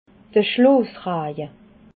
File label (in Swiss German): "schlosraje", alsace